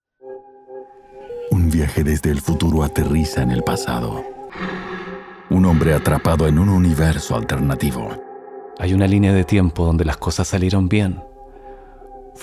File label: spanish latin american